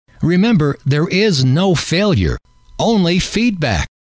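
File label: remember there is no failure, male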